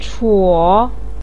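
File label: "chuo" third tone, Mandarin Chinese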